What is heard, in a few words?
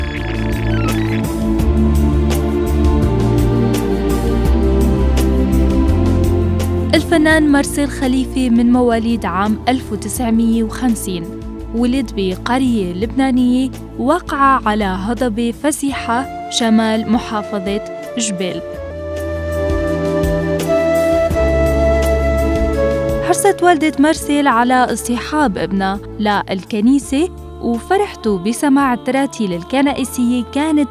narration